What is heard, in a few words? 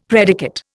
"predicate", Indian; Dictionary